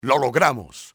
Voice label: success, spanish soldier; enemy; XBOX

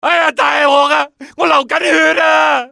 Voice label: "im bleeding", male; XBOX; civ

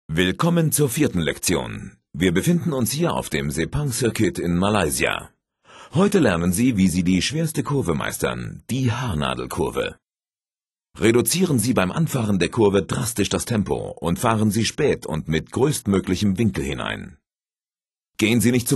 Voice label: hairpin german; MotoGP 3

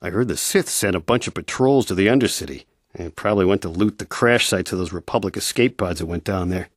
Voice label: male

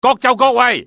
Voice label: take position, enemy cantonese male; XBOX

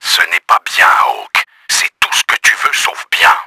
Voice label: ANNOYED, french; KRAMER; Battle Engine Aquila; XBOX

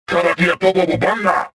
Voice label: suspicious

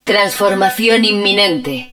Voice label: HUD Transformation Imminent, Spanish

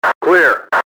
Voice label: clear, radio male; XBOX

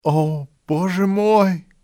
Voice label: my god, male; XBOX; civ

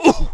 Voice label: fall, negative; Splashdown; XBOX

